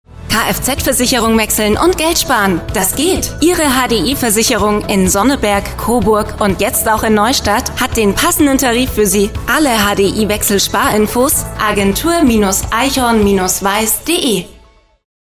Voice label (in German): Spot Versicherung; HDI